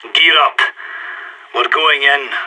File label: pep talk, radio; SAS